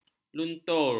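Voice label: "luntur", Malay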